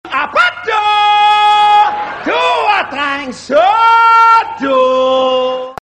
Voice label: danish, sms; ringtones